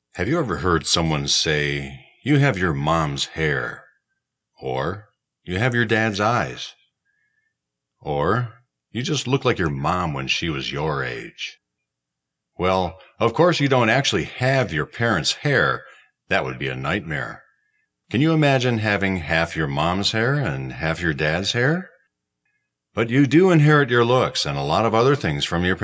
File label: Educational